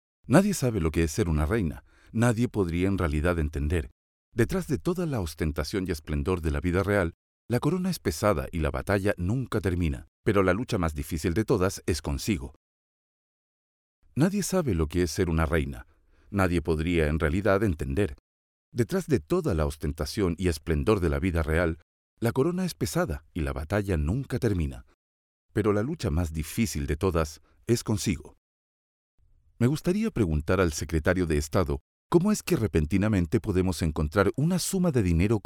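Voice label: latam male narration; voice demos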